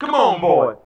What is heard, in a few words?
Male FX Vocals; Houseworx